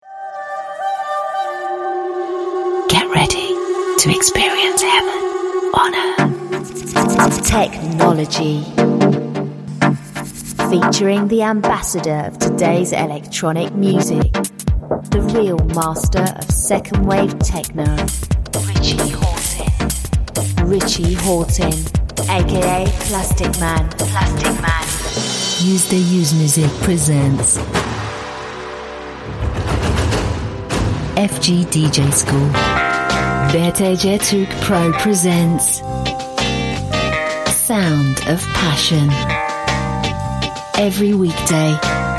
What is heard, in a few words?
turkish voice continuity radio